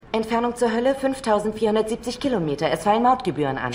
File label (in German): Sprachcomputer, Stimmproben; Evil